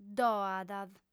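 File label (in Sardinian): "ddo'at", female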